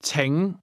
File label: "please", cantonese